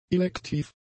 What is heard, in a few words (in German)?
"elektiv", german; adjectives